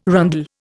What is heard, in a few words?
"rondel", Indian